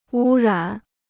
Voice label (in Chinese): "污染", Chinese